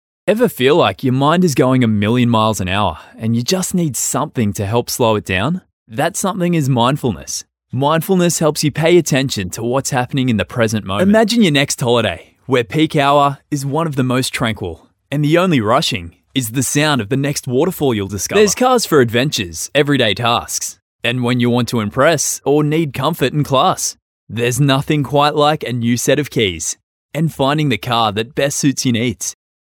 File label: Natural